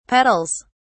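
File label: "petals", British English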